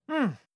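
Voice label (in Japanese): "うん", Japanese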